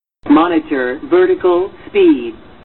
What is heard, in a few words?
Female Monitor Vertical Speed